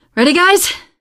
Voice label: ask ready, survivor voice teen girl; source engine; left 4 dead 2